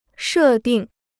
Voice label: "设定", Chinese